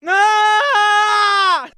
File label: nooooo, human; ooh ahh